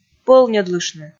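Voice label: "пӧлньӧдлыштны", Komi, female; kpv audio dict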